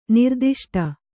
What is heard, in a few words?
"ನಿರ್ದುಷ್ಟ", kannada